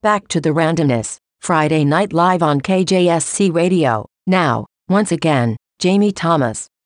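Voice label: female voice; Friday night live break out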